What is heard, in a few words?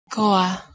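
"kouh2e", Proto-Indo-European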